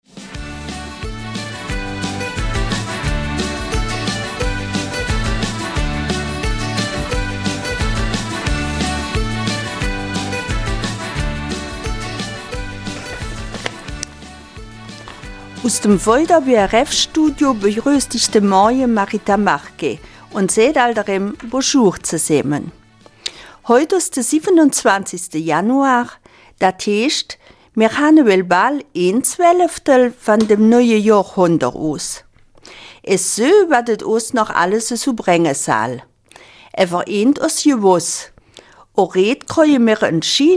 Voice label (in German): Mundart; En noij Stross vérr Reet